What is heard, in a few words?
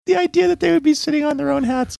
funny